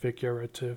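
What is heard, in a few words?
"figurative", US English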